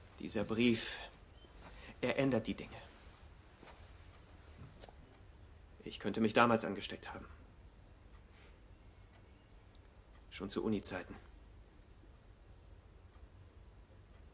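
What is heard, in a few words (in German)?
synchron, sprecher; holding angesteckt; LOFT VOICES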